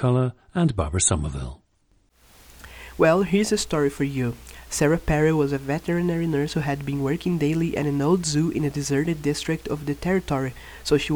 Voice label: brazil, dialects

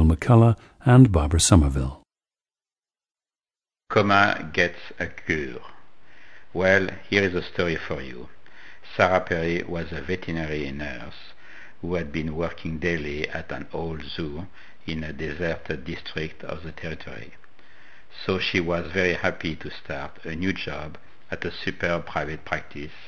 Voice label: france, dialects

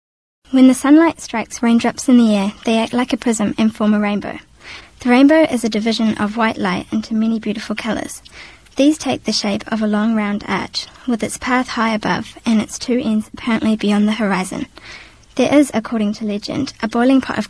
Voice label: New Zealand

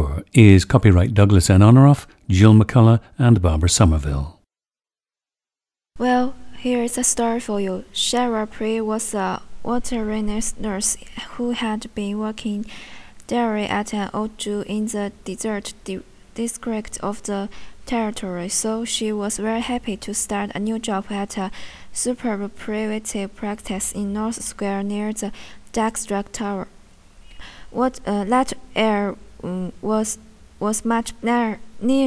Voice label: liaoning

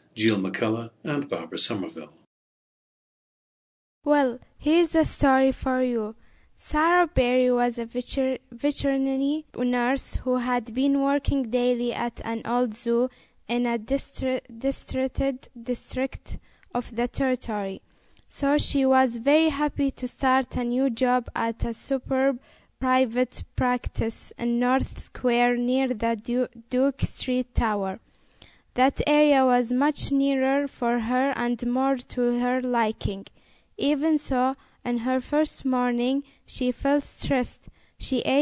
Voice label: saudi arabia, dialects

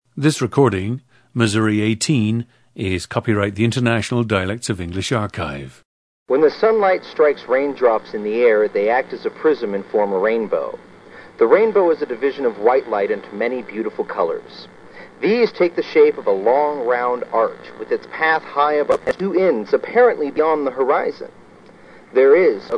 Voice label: missouri, dialects; Missouri 18; International Dialects of English Archive; a 26-year-old man from Springfield, Missouri

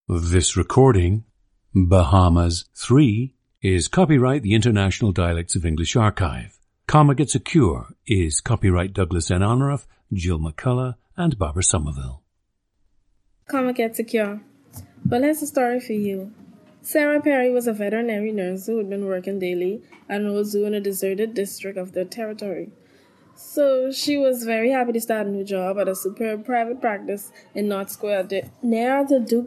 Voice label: Bahamas, International Dialects of English; Bahamas 3; a 23-year-old woman from Nassau, Bahamas.

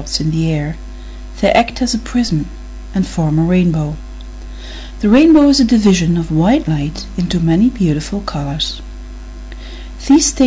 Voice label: belgium, dialects

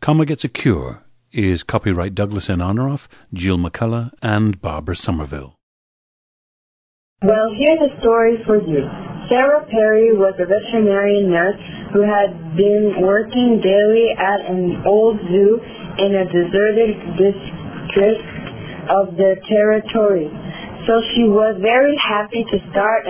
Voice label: quebec

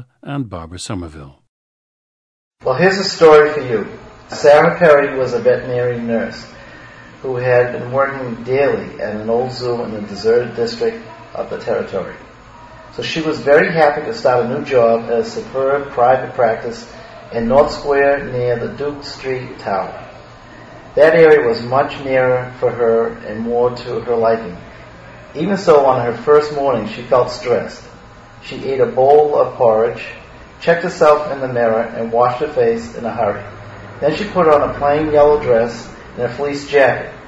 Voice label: massachusetts, dialects

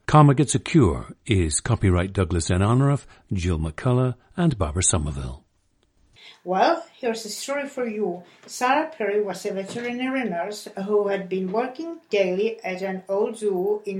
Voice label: czech

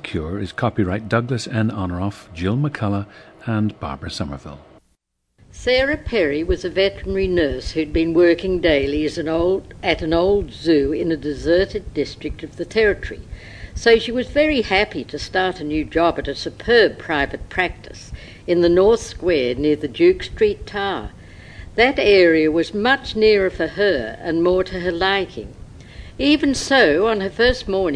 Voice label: australia

